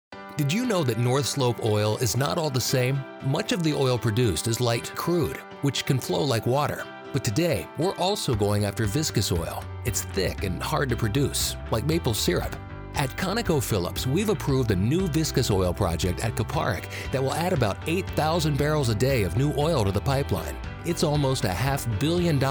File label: news radio